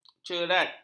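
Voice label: "cerat", Malay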